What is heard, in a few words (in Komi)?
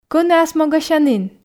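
Komi, кӧні асмогасянін, female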